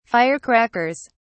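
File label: "firecrackers", British English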